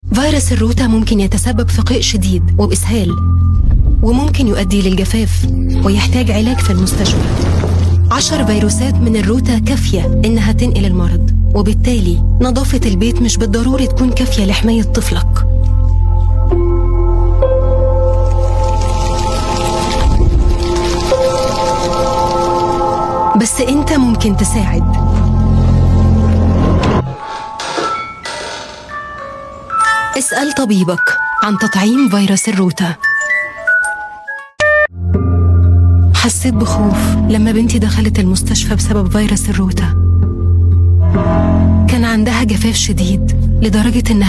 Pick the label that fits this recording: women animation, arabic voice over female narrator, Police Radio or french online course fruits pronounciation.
arabic voice over female narrator